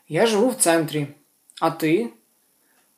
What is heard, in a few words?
dialogue, Ukrainian